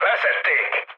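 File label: Hungarian aircraft destroyed mood high, speech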